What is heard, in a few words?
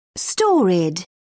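"storied", English, British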